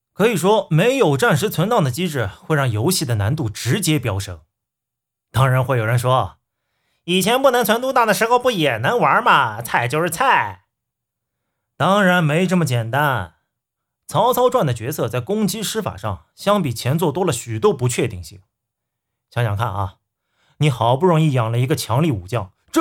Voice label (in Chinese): 游戏解说片段